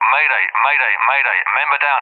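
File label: "member down", English; UK; Messages; DCS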